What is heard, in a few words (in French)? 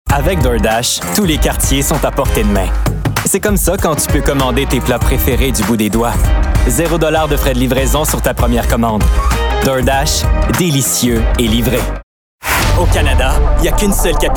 Annonceur